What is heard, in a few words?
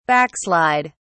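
"backslid", British English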